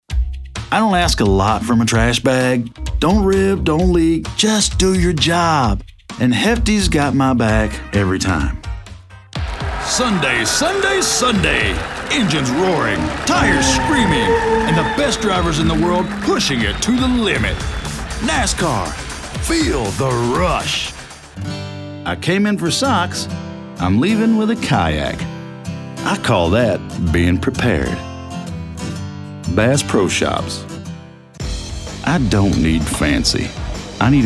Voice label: Commercial, Professional Male Voice Talent; inviting and trustworthy with a touch of authentic twang; Commercial Demo 2025